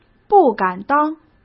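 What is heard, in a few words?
"不敢当", Mandarin Chinese; chinese flashcards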